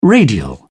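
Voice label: "radial", British English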